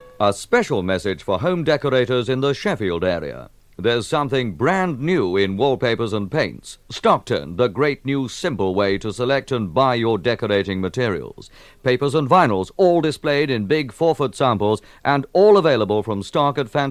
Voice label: Radio Hallam Advert; Stockton